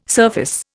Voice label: "surface", Indian

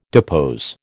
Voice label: "depose", American

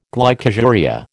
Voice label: "glycosuria", American

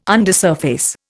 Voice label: "undersurface", Indian

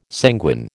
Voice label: "sanguine", American

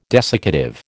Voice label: "desiccative", American

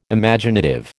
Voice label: "Imaginative", American

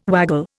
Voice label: "waggle", Indian

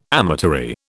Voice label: "amatory", British

